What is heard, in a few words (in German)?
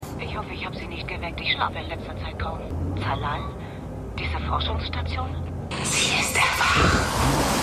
Frauenstimme; Miss Irniq